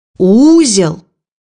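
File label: "knot", Russian; bukvar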